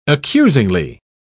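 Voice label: "accusingly", British English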